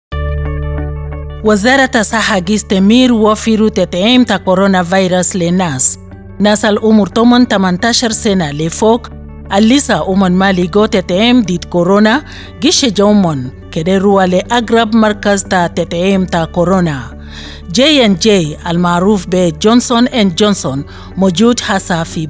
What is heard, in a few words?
COVID-19 J&J Vaccine Arabic